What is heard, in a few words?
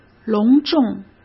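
"隆重", Mandarin Chinese; chinese flashcards; hsk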